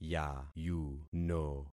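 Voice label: "yayuno", concatenated syllables; Affixing experiment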